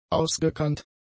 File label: "ausgekannt", German; adjectives